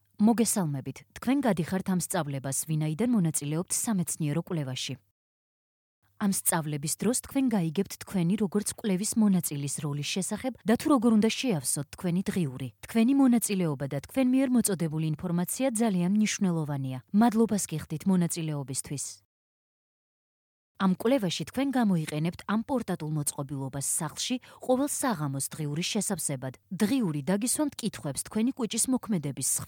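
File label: Georgian, female voice over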